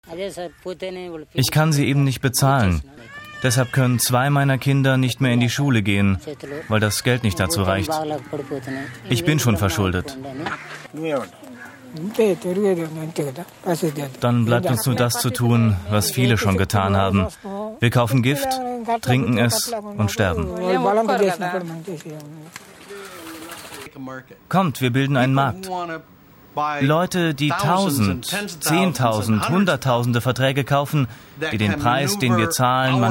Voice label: voice over